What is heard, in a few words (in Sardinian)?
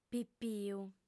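"pipiu", female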